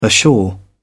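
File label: "ashore", English, British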